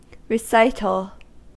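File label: "recital", American; pronunciations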